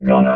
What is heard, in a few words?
"gonna", robot vox